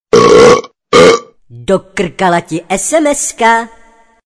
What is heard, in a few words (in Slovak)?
sms hlásky cz